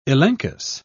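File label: "elenchus", British English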